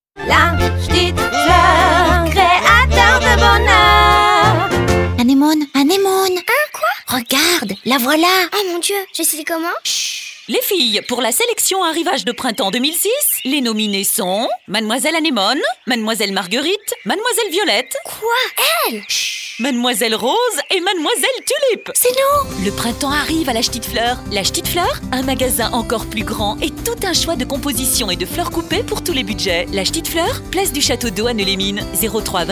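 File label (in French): spot, spots radio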